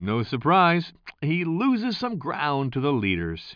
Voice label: commentator